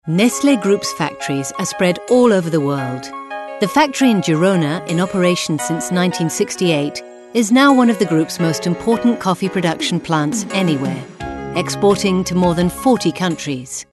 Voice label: CORPORATE, ENGLISH UK FEMALE

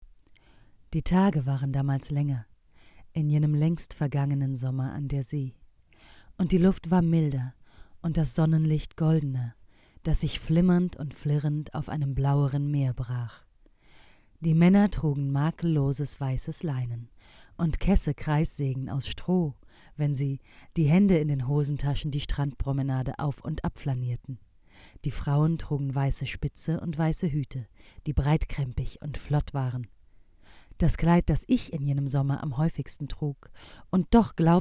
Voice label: narration, German, female

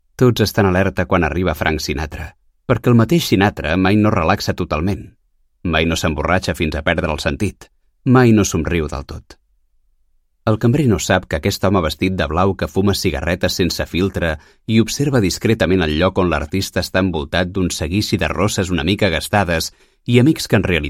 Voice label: CATALAN MALE AUDIOBOOK

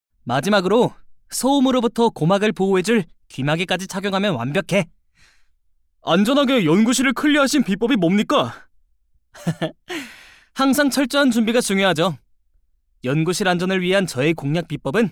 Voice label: KOREAN MALE

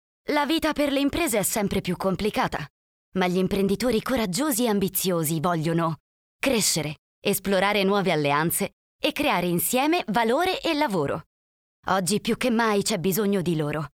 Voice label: commercial, Italian, female

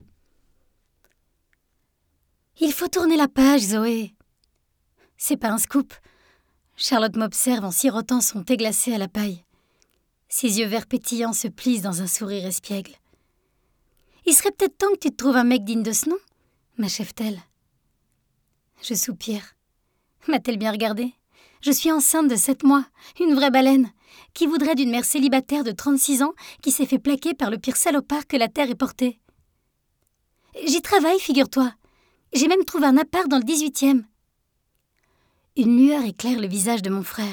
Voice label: YOUNG VOICE, FRENCH FEMALE

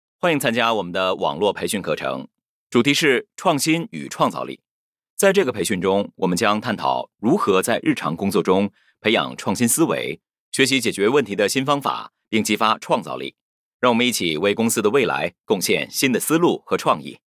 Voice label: Chinese, male

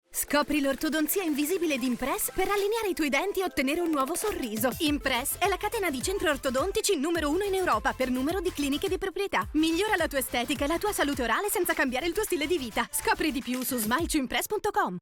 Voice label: commercial, italian, female

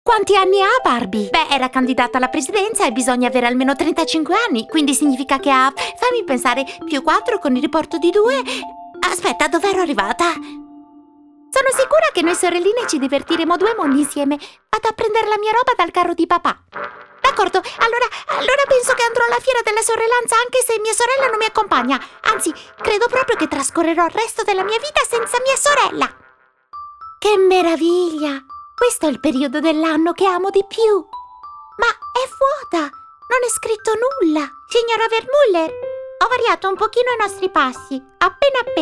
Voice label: characters, italian, female

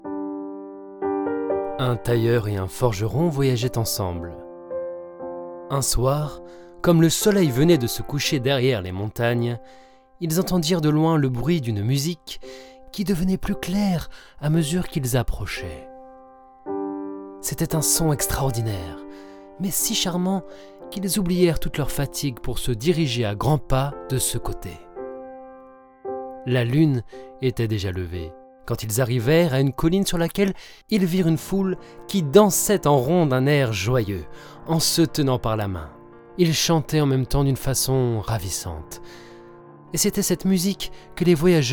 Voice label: narrative, french, male

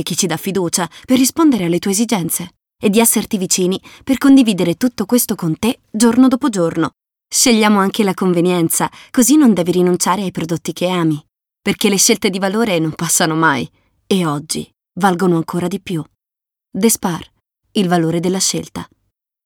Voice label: ADV, ITALIAN FEMALE; commercial; Despar

